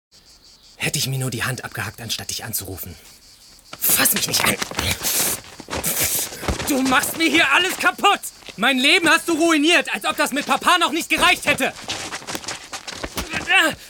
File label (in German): Synchron wuetend; LOFT VOICES